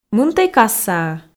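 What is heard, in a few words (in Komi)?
"мынтӧй кассаӧ", Komi, female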